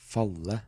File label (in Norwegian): "Falle", Norwegian